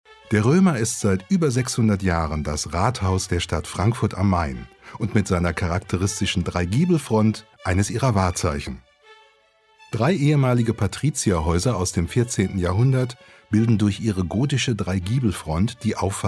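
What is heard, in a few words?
German, male, corporate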